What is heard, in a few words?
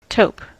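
"taupe", US English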